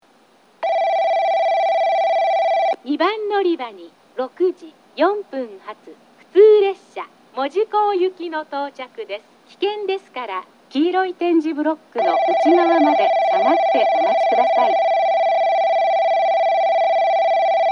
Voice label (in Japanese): 2番のりば接近放送; 2番のりば接近放送（普通 門司港行き）; takeoonsen